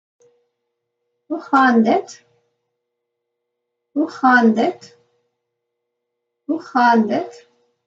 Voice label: Afrikaans How are you; Greetings